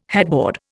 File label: "headboard", Indian